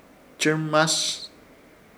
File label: "Chɨrmas", Ishir Chamacoco; bajar derrocar